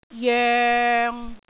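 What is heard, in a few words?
"joeng6", Cantonese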